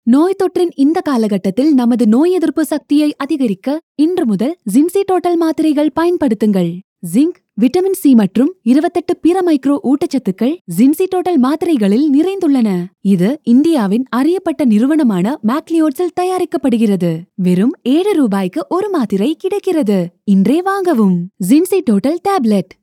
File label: Tamil; Zincitotal